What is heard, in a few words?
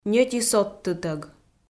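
"ньӧти содтытӧг", Komi, female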